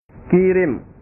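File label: "kirim", Indonesian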